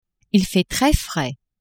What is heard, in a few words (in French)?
il fait tres frais, french; temps